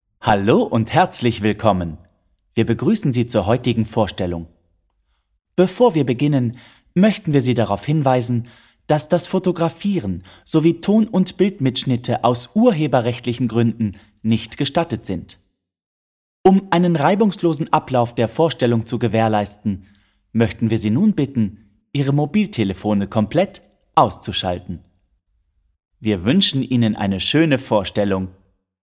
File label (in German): theater ansage